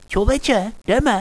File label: lock n load, radio